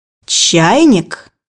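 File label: "teapot", Russian; bukvar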